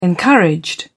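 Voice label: "encouraged", British English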